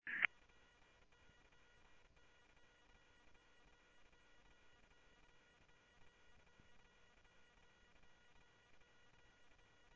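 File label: Simulcast police department, scanner audio trunk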